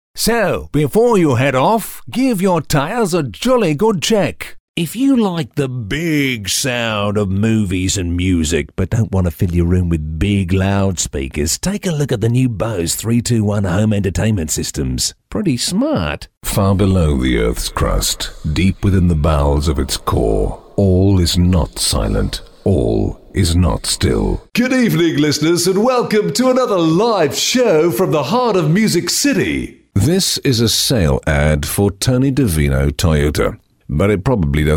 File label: Character